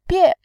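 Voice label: "bie", Mandarin Chinese, fourth tone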